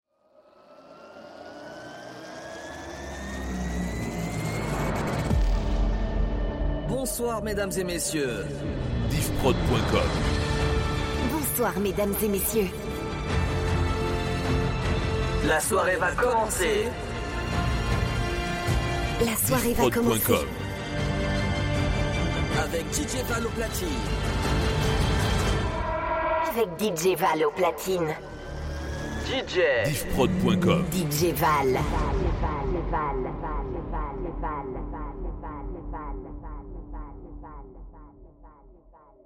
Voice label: Intro Epic

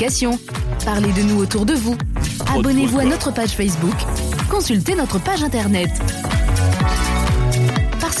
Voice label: Spot Promo Radio

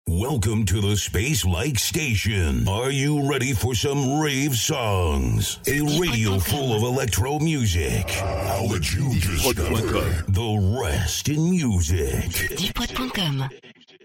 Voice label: Drops A cappellas, habillage

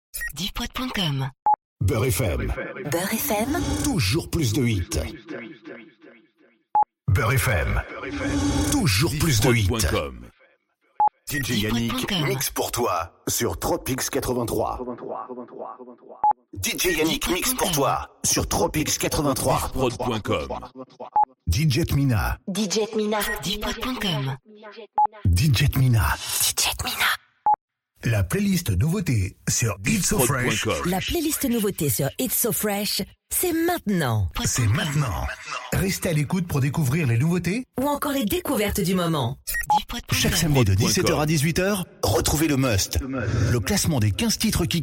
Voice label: Drops A cappellas, habillage

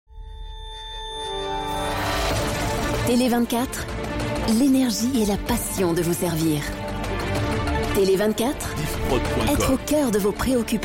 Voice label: Promo Lenergie et la passion; Tele 24

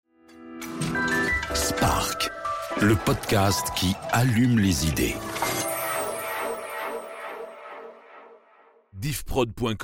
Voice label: Radio Jingle Podcast voix; Myra Radio; Spark

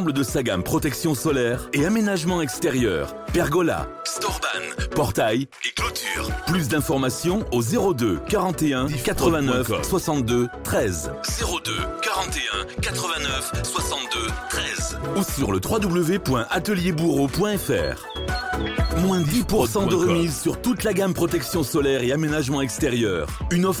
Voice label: Spot Ouvertures Ateliers; RPSFM; Ateliers Boureau

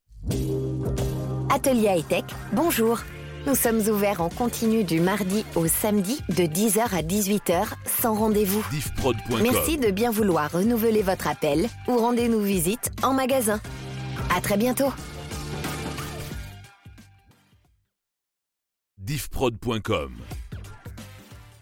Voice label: Audiotel Repondeur, telephonie svi; Atelier Itech

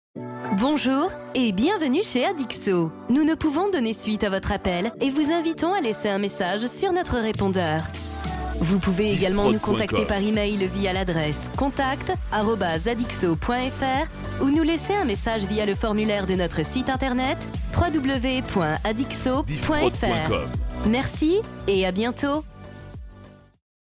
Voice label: Repondeur Absence, telephonie svi; Adixo

